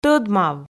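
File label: "тӧдмав", Komi, female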